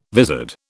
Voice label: "vizard", British